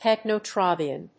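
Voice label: "pentobarbitone", English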